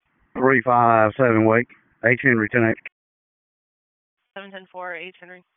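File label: Dispatch 1, P25; wake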